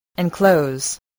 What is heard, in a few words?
"enclose", spelling